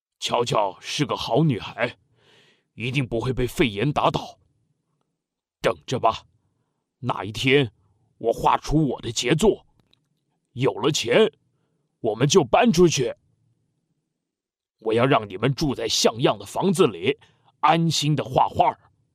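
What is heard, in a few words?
Taiwanese Chinese, Characters; GoLocalise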